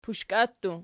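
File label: "pushka" plural, Archi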